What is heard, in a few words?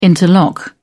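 "interlock", English, British English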